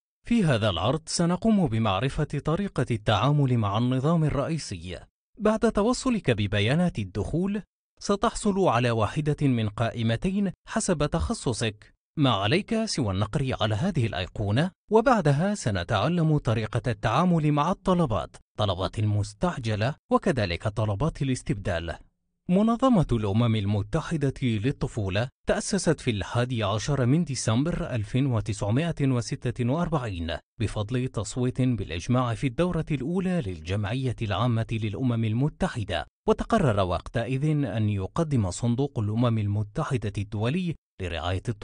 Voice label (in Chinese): 阿拉伯语男 外语 小语种 年轻